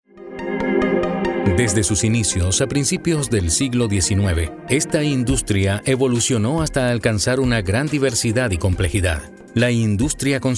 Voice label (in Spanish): corporativo